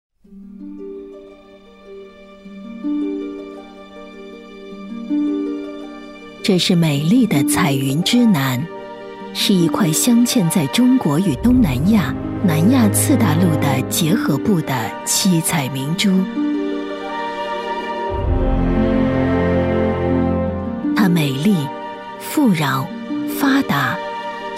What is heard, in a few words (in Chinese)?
女 纪录片 滇越铁路